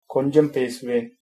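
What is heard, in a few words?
yes a little, tamil, male; கொஞ்சம் பேசுவேன்; konjam pesuven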